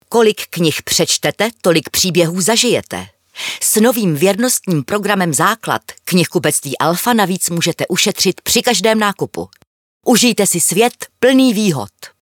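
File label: Czech, female, commercial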